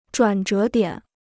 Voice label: "转折点", Chinese

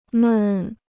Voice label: "闷", Chinese

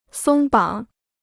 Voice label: "松绑", Chinese

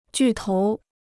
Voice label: "巨头", Chinese

